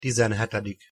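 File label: "tizenhetedik", Hungarian pronunciation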